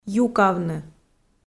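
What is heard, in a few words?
"юкавны", Komi, female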